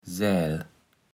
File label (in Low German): "Sääl", Platt